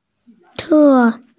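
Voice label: "te4", Mandarin Chinese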